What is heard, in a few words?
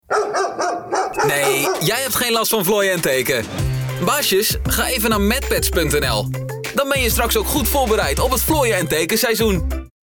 Commercials